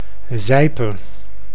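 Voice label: "Zijpe", Dutch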